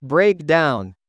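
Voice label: "break down", English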